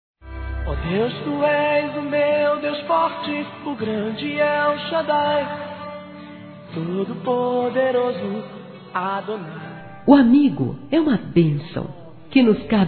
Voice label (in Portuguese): Evangélico Aniversário Amizade Feminino; Aniversários